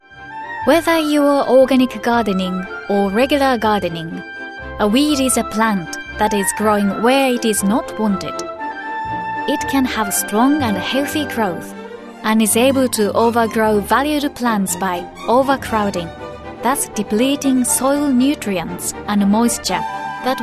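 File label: Japanese, English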